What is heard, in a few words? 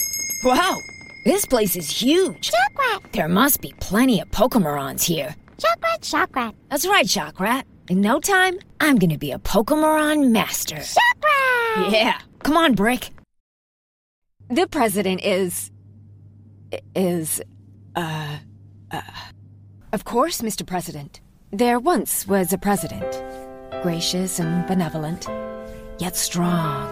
US accent